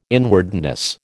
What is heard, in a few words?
"inwardness", American